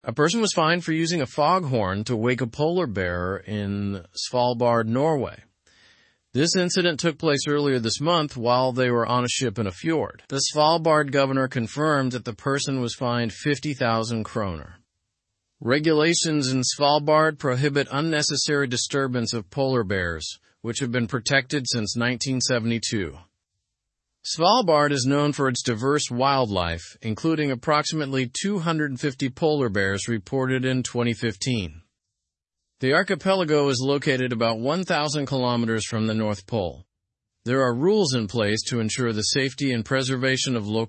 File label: learn english through news upper intermediate; fine imposed for disturbing polar bear in svalbard